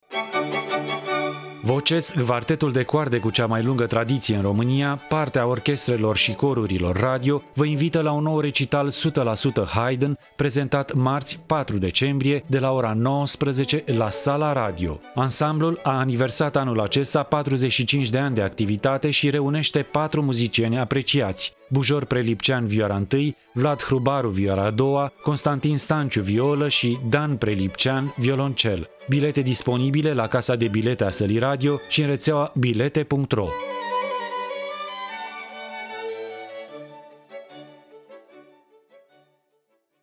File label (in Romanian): Spot; Voces